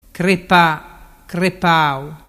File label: "crepapau", dialettu